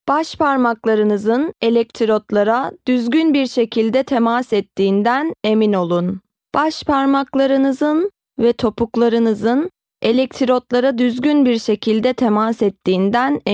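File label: Turkish, female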